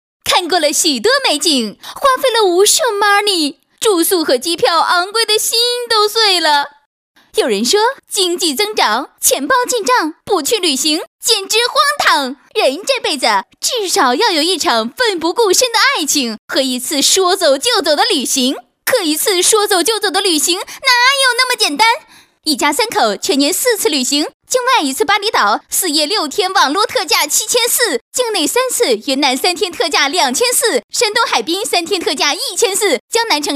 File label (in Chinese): 女 年轻甜美, 飞碟说风格, 国语女声配音; 纷纷旅行网